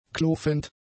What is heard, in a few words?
"klofend", German; adjectives